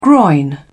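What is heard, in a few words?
"groin", British English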